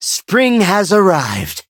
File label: victory, en; Cookie Run